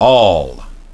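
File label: "all", English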